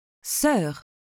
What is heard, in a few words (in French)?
"soeur", French